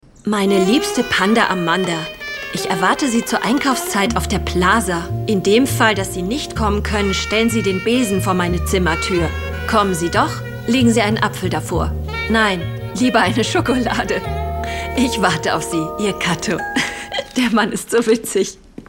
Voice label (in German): Aufgeregt